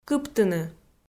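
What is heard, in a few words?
"кыптыны", Komi, female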